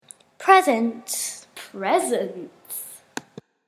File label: "presents", English